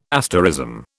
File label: "asterism", British